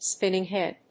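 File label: "spinning head", English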